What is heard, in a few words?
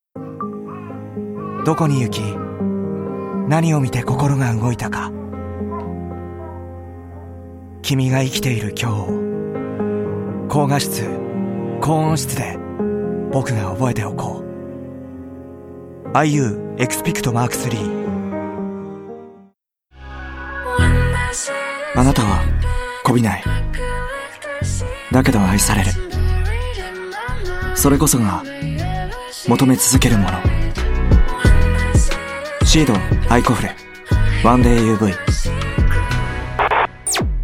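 japanese narration, male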